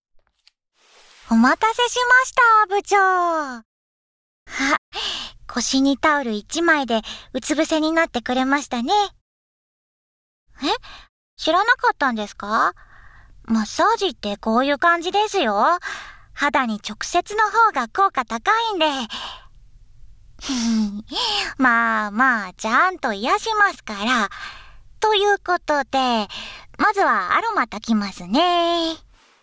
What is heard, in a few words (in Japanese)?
マッサージ音なし ノイズ除去なし